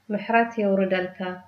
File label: get well soon, tigrinya; ምሕረት የውርደልካ።